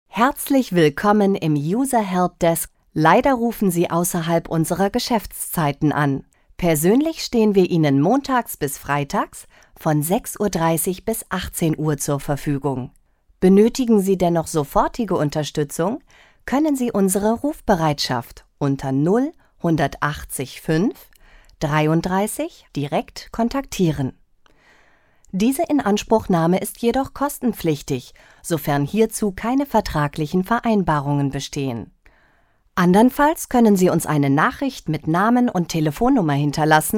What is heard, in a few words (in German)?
deutsch, Telefonansagen, weiblich